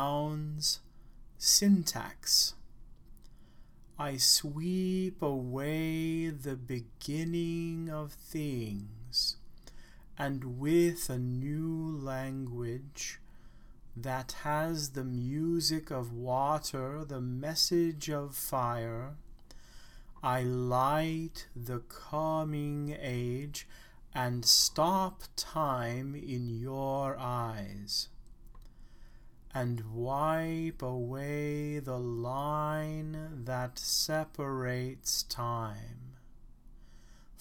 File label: i conquer the world spoke slowly